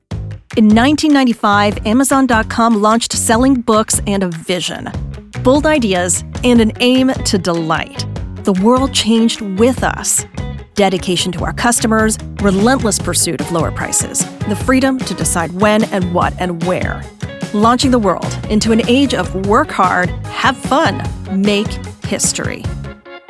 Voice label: female english canadian neutral north american accent corporate; amazon com